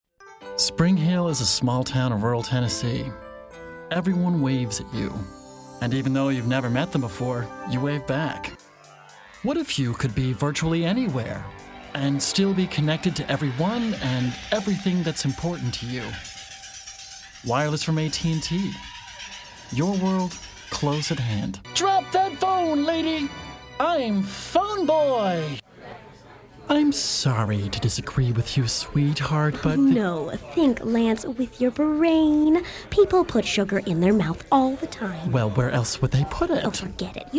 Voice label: commercial, voice